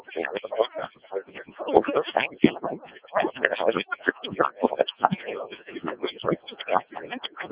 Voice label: decoded speech, 7177KHz